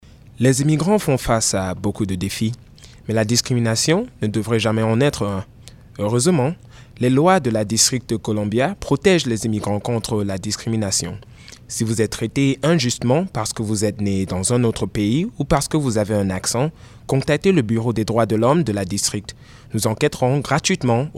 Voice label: French